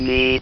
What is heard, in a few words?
"MEET", votrax; VIDEO GAMES